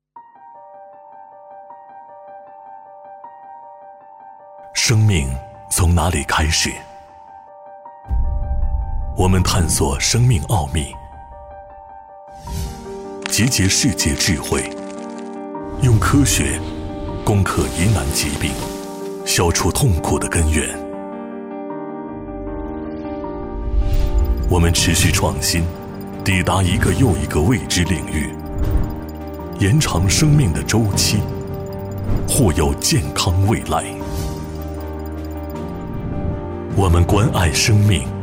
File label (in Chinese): 男 广告 神秘深沉; 复星新冠疫苗广告片